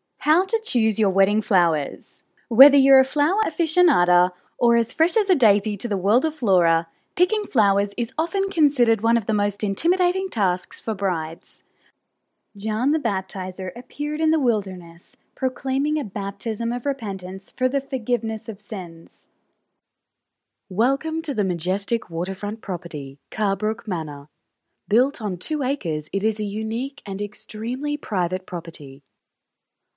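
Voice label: Female, English, Australia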